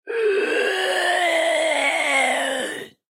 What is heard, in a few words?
scary voice; SoundsCrate